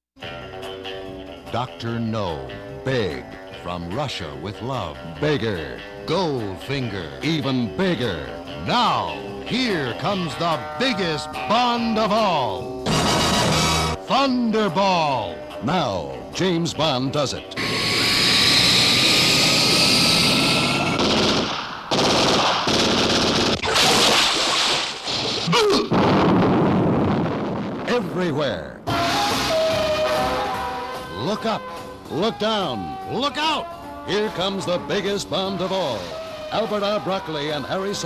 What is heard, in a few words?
Radio Commercials; Thunderball; James Bond 007